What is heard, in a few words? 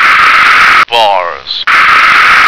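"vase", American English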